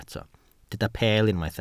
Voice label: "peel", Welsh